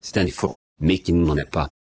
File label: synth